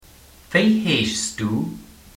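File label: whats your name, luxembourgish; Wéi heeschs du?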